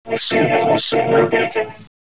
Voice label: robot voice; valve; cinima